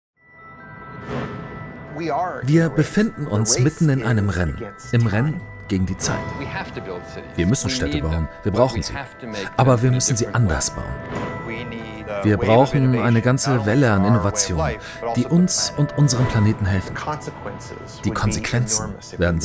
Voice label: Doku Rolle

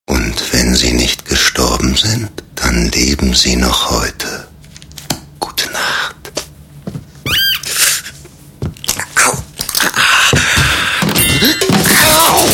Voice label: Funkspot; Ikea

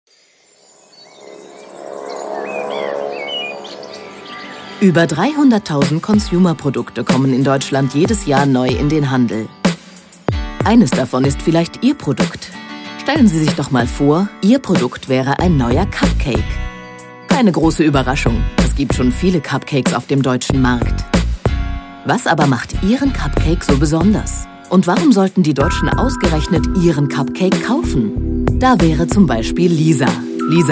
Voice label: Erklärfilm

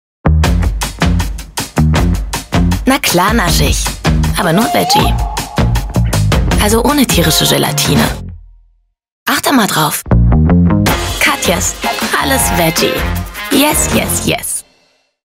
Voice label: Commercial (Werbung), German, Hessisch, French

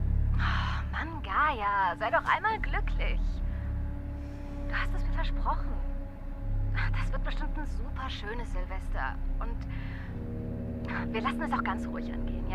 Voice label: Mailbox; Parabole

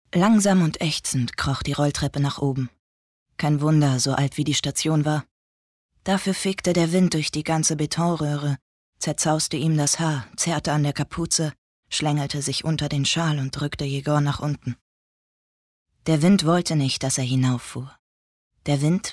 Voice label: erzählung

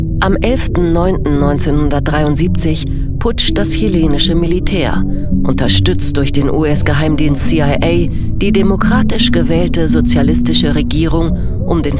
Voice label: Museumsguide; Stadtpalais-Stuttgart

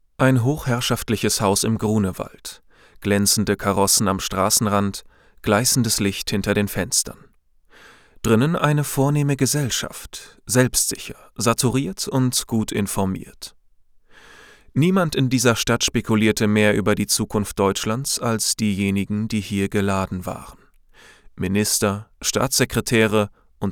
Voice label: hoerbuch historisch kurz; LOFT VOICES

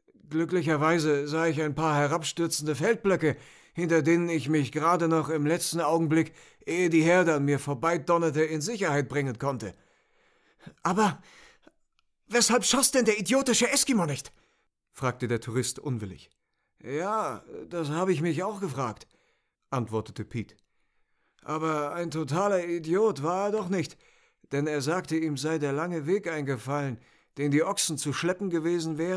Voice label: Hoerbuch

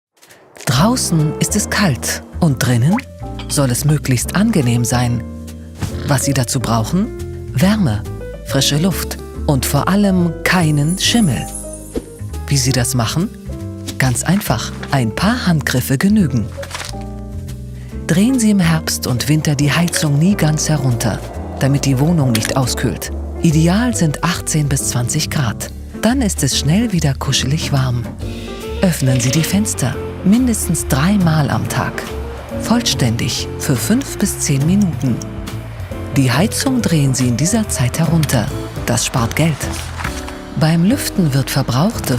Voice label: Erklärfilm Umweltschonend und gesund wohnen DE; LOFT VOICES

